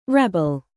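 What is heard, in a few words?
"bass", noun, British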